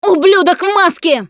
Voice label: warn hunter, russian, Survivor Voice Producer